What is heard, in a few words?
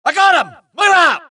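suppress, dialogue, Allies; mohaa; Mission 1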